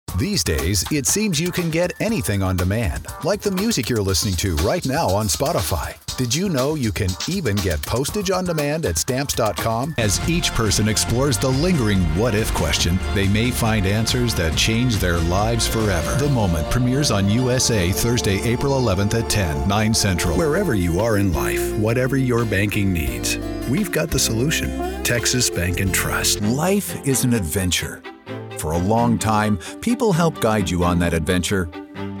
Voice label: Commercial

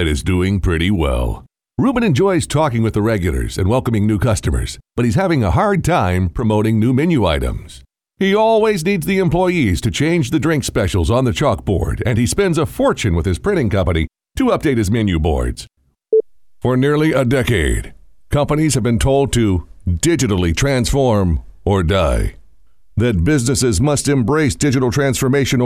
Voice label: Long Form Narration

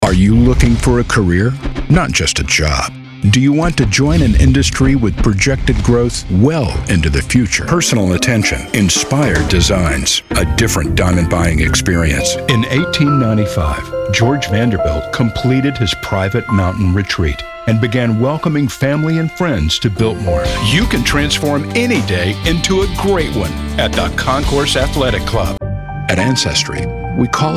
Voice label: Infomercials

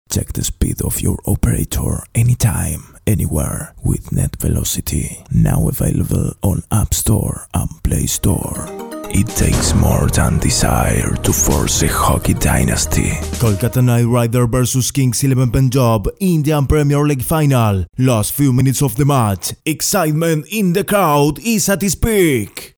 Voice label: ENGLISH

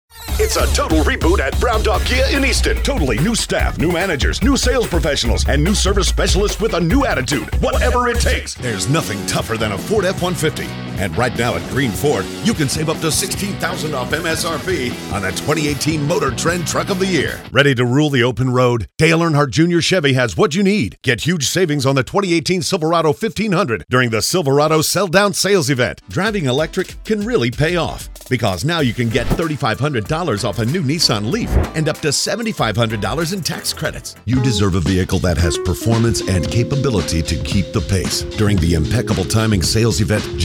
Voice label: Car Spot

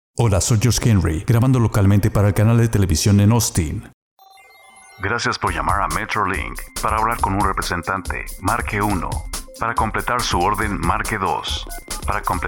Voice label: Voice Mail

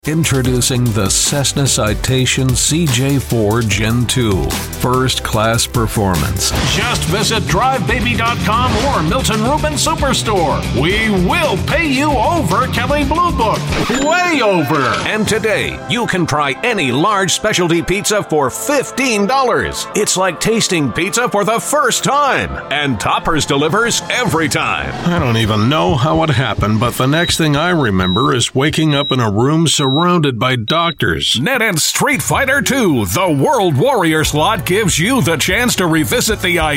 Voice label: Commercial

